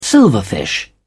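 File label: "silverfish", British English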